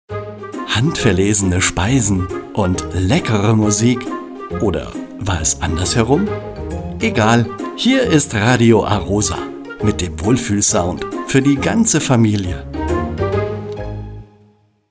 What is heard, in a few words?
Radio Station ID male; Radio A-ROSA